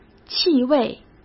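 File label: "气味", Mandarin Chinese; chinese-flashcards; hsk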